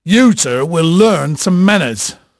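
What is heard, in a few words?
English male, voice; Pirates Of The Caribbean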